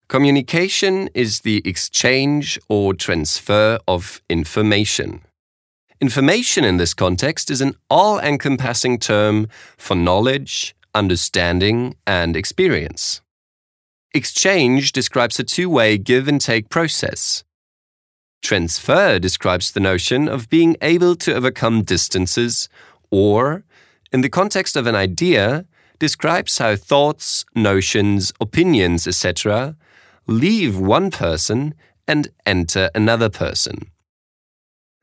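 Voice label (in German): US English, Freundlich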